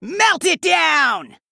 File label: Male Gnome Attack